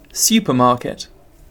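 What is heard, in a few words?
"supermarket", British English; pronunciations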